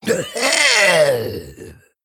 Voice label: Male Kobold Death